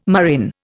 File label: "murrain", Indian